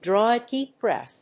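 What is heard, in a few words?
"draw a deep breath", English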